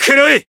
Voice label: attack, jp; majuou

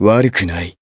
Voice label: Voice growth jp; jade

